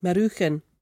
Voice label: "maroochan" [marOO-chun]; person, unfortunate; Word